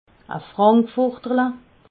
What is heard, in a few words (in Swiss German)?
"frankfurterle", alsace